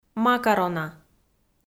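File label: "макарона", Komi, female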